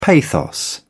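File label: "pathos", British English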